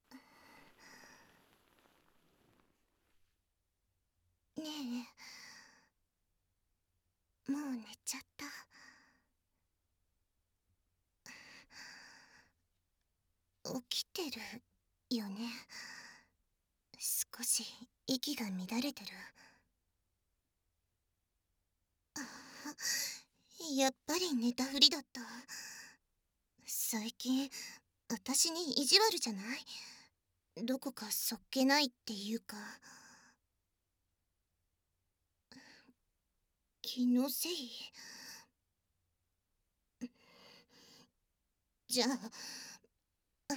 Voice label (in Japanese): 君の耳、ぺろぺろしてあげる_くちゅ音なし